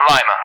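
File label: "Lima", English; Alphabet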